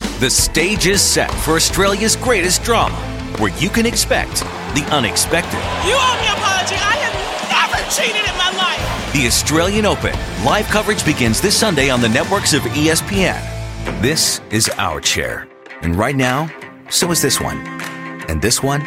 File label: International Accent